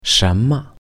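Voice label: "什么", Chinese; what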